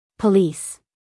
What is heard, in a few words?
"police" us female; everyone-can-use-english